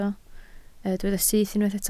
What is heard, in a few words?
"syth", Welsh